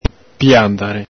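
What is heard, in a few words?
"piandhare", Venetian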